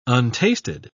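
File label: "untasted", British English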